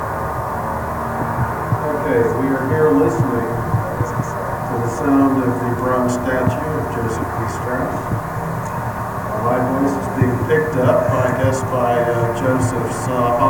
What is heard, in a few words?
Interview; Joseph B Strauss